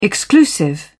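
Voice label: "exclusive", British English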